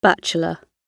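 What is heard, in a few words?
"bachelor", British English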